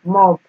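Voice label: "mob", Nigerian Pidgin, female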